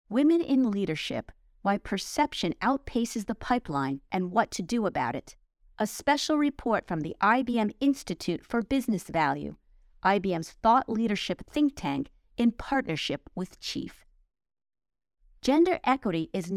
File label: Narration; Women in leadership study 2023; IBV